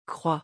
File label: "croix", French